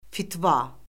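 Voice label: "fitva", Azerbaijani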